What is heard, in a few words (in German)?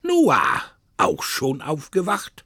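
"Auch schon aufgewacht", German; dieseitemitkaeptnblaubaer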